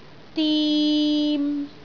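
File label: "dim3", Cantonese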